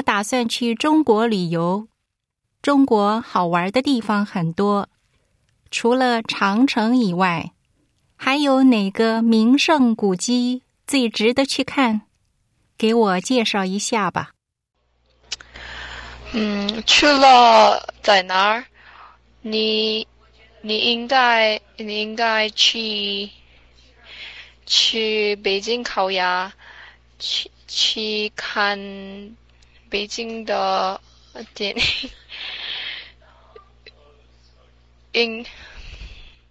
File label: chinese conversation